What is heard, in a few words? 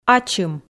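"ачым", Komi, female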